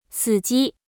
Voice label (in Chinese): "死机", Mandarin